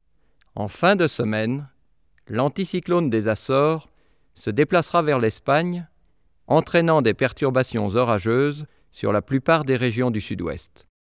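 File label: formal speech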